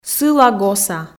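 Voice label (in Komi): "сыла-госа", Komi, female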